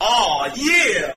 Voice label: "ah yeah", Voice